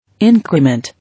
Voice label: "Increment", English